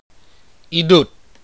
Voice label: bitter, ibibio